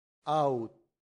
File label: "aut", latin; santillana